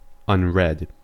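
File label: "unread", American English; pronunciations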